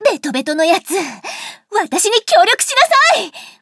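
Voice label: start, Japanese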